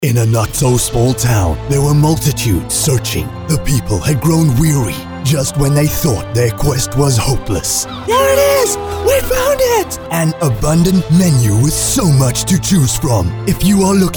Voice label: Radio AD; The Windmill Discovery